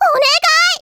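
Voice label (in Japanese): "おねがいっ", Japanese; Voice&Breath; Vocaloid 6.5.1; Otomachi; VOCALOID6 AI OtomachiUna Spicy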